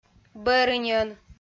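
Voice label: "бӧрыньӧн", Komi, female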